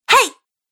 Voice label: "Hey", Womans Voice; Recorded Voice Sound Effects